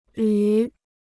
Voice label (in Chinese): "屡", Chinese